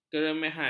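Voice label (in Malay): "keremehan", Malay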